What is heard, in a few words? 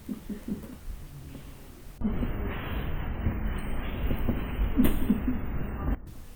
upstairs female